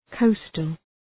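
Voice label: "coastal", English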